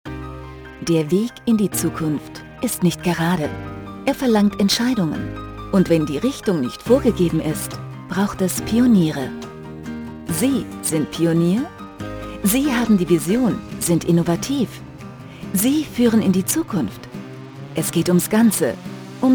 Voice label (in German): Imagefilm; Staples